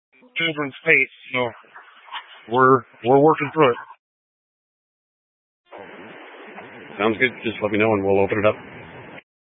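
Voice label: Incident Tac 3, Scanner audio recording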